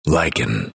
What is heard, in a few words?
announcer pick lycan; dlc bastion